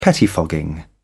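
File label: "pettifogging", English, British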